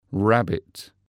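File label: "rabbit", English; animals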